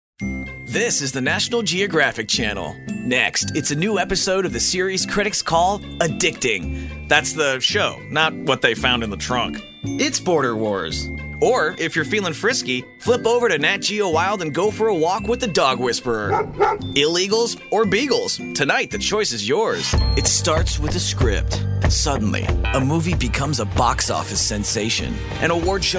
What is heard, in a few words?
Promo VO